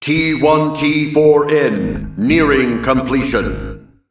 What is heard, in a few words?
Male Mech Play